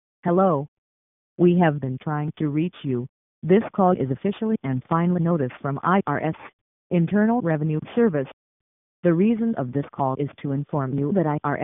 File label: voicemail